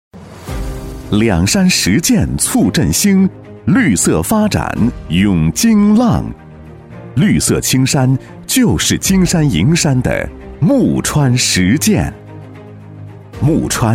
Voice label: 男 城市汇报 大气昂扬, 高端质感 大气浑厚; 沐川

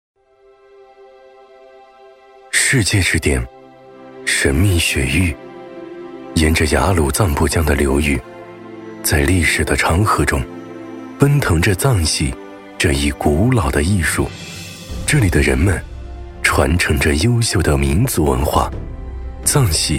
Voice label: 男 宣传片 文化解说; 藏戏; 男D6-抒情温暖

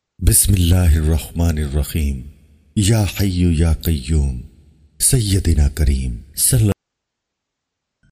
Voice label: 乌尔都语男声, 广告配音

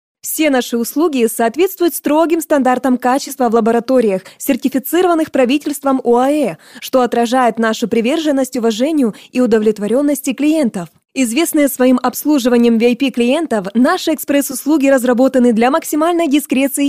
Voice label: 俄语女声

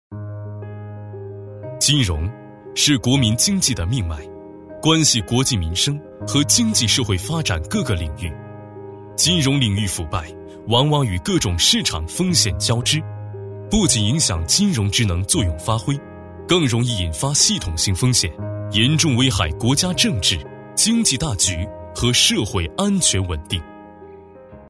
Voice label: 男 科技专题【金融】; 科技品质 大气浑厚

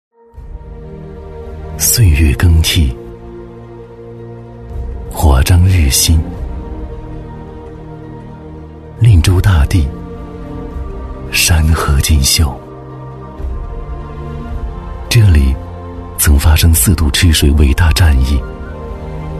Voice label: 男 宣传片 大气唯美; 男90-高端质感 质感磁性; 古蔺

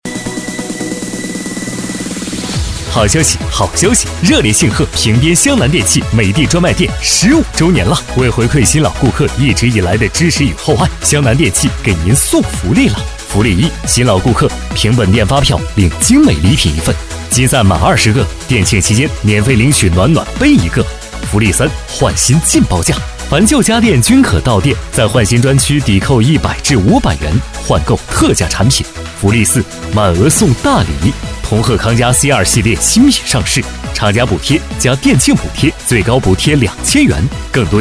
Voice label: 男C9-促销广告-轻快, 年轻质感 素人自然; 美的专卖店

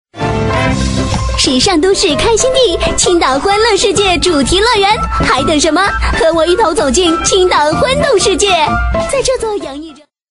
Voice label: 女 激情促销广告 时尚动感; 轻松活泼

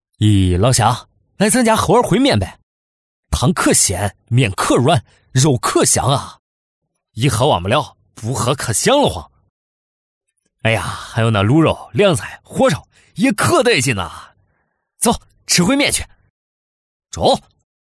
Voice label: 男C9-河南方言, 年轻质感 素人自然; 《烩面》; 男C9-河南方言《烩面》

